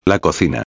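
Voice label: "la cocina", Spanish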